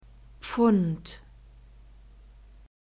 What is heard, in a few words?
"Pfund", German; masculine